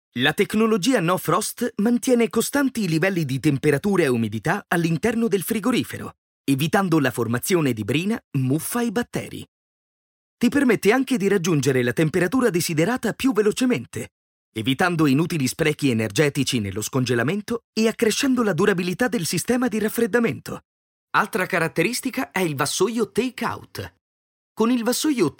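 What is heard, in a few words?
Italian male voice over, sales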